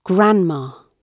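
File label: "grandma", British English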